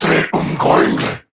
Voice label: Attack, Male

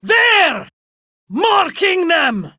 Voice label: Enemy Spotted Female

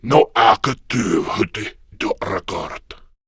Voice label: Patrol, Male

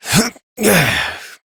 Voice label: Horse Dismount Adult; Duviri

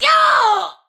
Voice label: Death Ranged, Battle Barks; Tau; Tau Dax

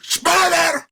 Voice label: Player Spotted Grineer Ghoul Sargeant, en; Grineer Ghoul Drill Sargeant; Battle Barks

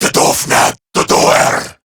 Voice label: Reload, Male

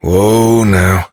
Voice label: Horse Stop Walk Adult; Duviri

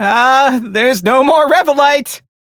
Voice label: Crew Need Revolite, Male; Dialog; Warframe; Railjack Crew; Perrin Sequence

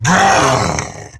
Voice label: Attack Grineer Wolf, en; Battle Barks

